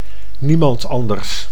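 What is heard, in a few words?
"niemand anders", Dutch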